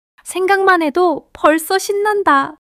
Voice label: joy; female